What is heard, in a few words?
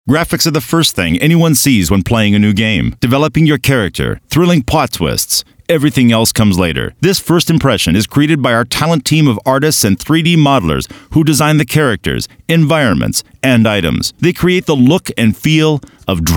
English voice